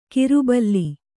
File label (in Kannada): "ಕಿರುಬಲ್ಲಿ", Kannada